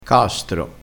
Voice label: "castro", crespinese

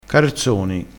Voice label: "carzoni", crespinese

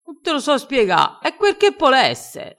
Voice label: "e quer", crespinese, vocabolario dialettale

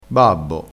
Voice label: "babbo", dialettale

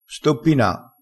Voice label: "stoppina", vocabolario dialettale

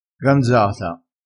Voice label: "ganzata", vocabolario dialettale

